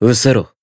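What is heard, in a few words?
finish, Japanese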